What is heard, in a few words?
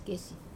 "kesi", tree resin, Wamesa